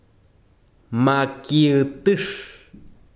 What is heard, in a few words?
besiro viento, chiquitano